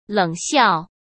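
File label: "冷笑", Mandarin Chinese; hsk; chinese flashcards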